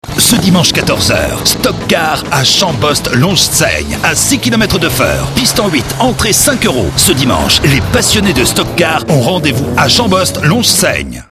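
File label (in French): spot, spots radio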